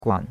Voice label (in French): "guan3", chinois; Cours-de-Chinois